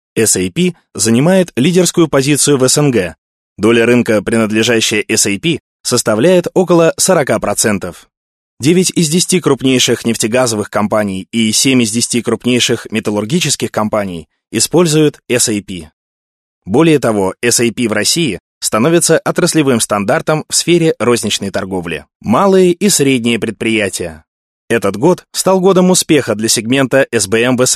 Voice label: russian male